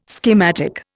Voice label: "schematic", Indian